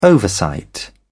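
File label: "oversight", English, British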